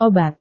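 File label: "obat", Indonesian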